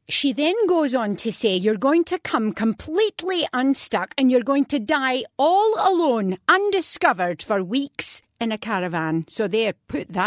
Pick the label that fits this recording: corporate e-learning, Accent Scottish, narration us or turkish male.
Accent Scottish